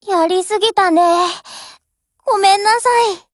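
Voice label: BREAK Japanese; GF Alarm; UMP40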